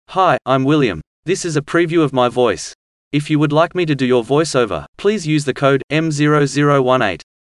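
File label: Australia, male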